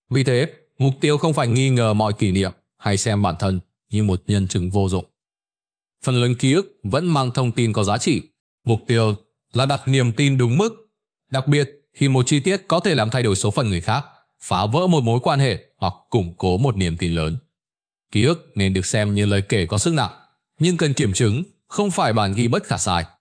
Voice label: narration